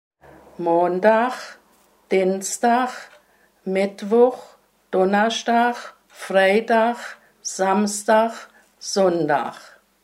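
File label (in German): wochentage, O-Ton Hunsrück; Sohren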